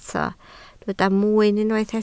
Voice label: "mwyn", Welsh